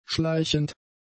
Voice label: "schleichend", German; adjectives

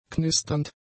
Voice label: "knisternd", German; adjectives

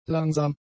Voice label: "langsam", German; adjectives

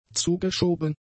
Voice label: "zugeschoben", German; adjectives

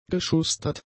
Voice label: "geschustert", German; adjectives

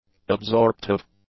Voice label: "absorptive", english; adjectives

